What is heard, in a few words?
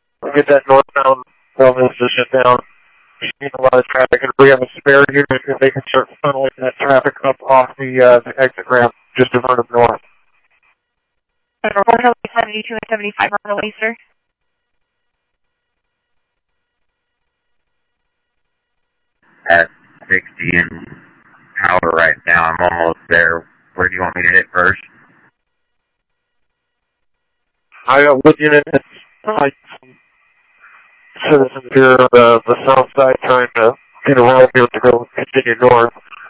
Mesa Simulcast police; jumper